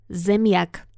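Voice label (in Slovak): "zemiak", Slovak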